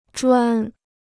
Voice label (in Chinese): "砖", Chinese